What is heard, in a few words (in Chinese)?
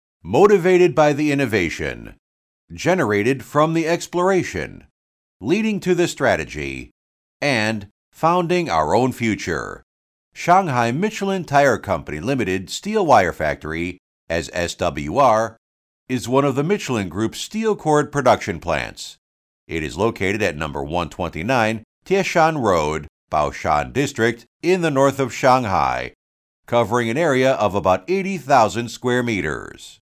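外籍英语美式解说词大气磁性; 米其林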